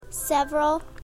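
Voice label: "several", English word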